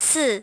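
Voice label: "four", English; iOS 4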